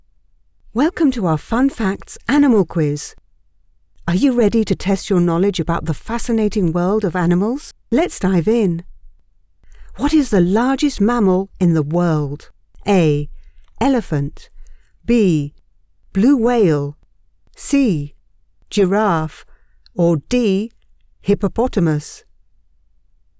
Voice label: ANIMALS QUIZ, E-Learning For Children, British, Female Voice Over